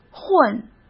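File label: "混", Mandarin Chinese; hsk; chinese-flashcards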